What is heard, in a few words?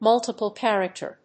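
"multiple character", English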